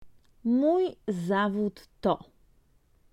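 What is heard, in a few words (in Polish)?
"moj zawod to", Polish; Vocabulario: frases y palabras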